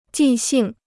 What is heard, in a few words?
"记性", Chinese